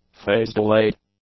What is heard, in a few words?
"phase-delayed", english; adjectives